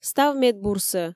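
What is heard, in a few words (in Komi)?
став медбурсӧ, Komi, female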